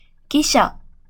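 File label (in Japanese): "記者" Japanese, male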